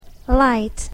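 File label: "light", English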